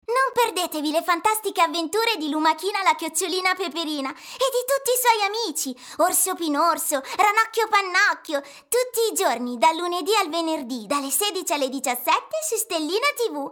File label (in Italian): promo canale voce bambina